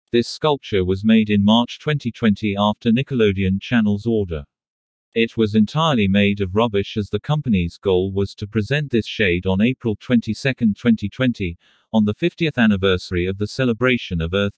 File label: speech English